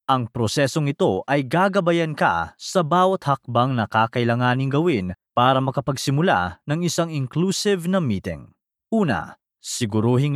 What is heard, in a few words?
tagalog e-learning